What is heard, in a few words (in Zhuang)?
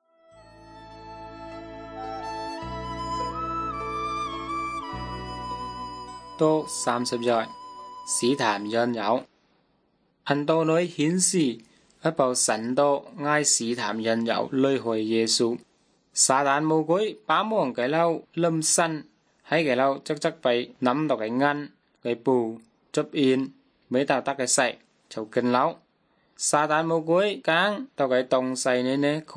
good news zhuang nanning fushu